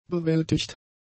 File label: "bewältigt", German; adjectives